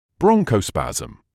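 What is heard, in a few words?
"bronchospasm", British English; ˈbrɒŋ.kəʊ.spæz.əm; BRONG-koh-spaz-uhm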